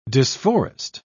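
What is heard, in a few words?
"disforest", British English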